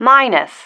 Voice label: "minus", female